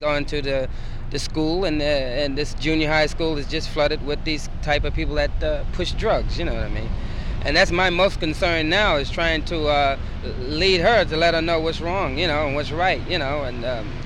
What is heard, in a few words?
New York interviews